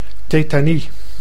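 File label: "tetanie", Dutch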